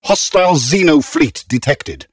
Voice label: advisor notification hostile fleet detected, xenophobe; Stellaris; ethics